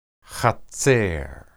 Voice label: hatser, hebrew